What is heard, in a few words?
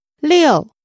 Chinese Number 2